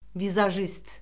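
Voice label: "vizajist", Azerbaijani; Orfoqrafiya Lüğəti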